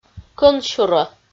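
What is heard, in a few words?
"кӧнсюрӧ", Komi-Zyrian, female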